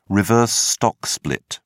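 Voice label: "reverse stock split", British English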